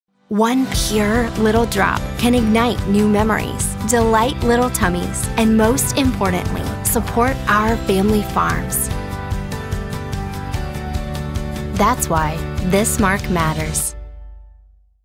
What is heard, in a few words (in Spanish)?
USA Femenino